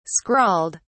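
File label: "scrawled", British English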